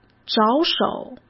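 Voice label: "着手", Mandarin Chinese; chinese flashcards; hsk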